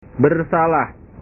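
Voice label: "bersalah", Indonesian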